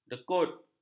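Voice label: "dekut", Malay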